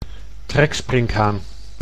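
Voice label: "treksprinkhaan", Dutch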